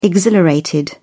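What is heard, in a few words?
"exhilarated", British English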